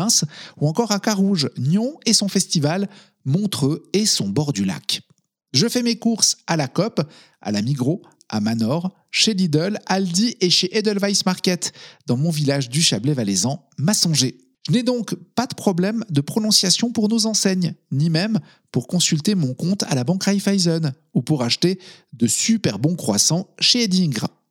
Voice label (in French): voix off suisse